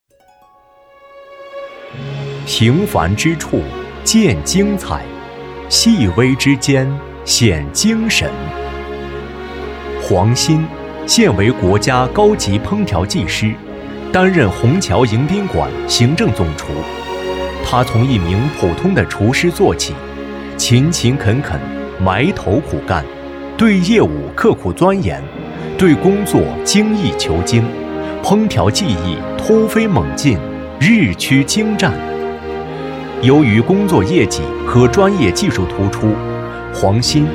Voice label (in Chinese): 男 人物介绍 成熟知性 年轻磁性